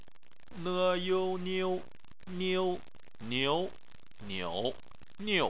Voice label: "niu", chinese